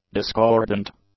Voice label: "discordant", English; adjectives